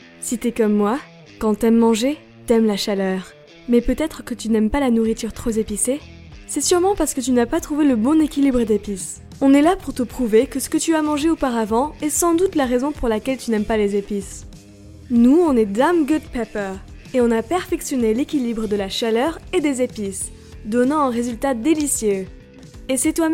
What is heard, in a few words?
commercial fr